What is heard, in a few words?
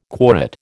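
"quorate", American English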